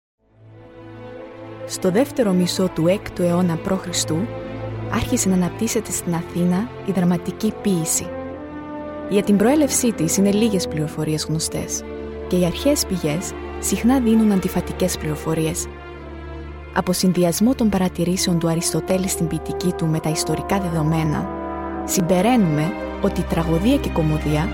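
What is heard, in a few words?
Greek, documentary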